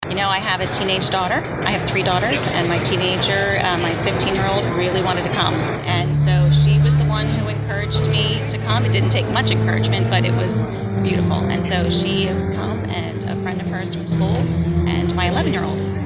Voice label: Vox Pops; March for Life